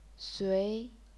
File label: "sui2", chinese pinyin